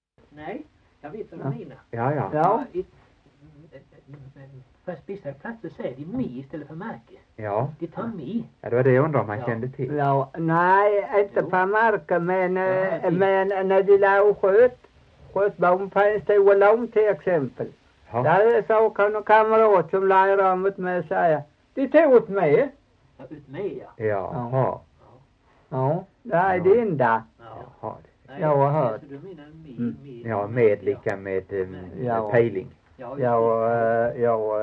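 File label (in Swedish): Torhamn Blekinge, dialekter; Folkminnen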